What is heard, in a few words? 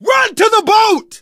arrive boat, survivor voice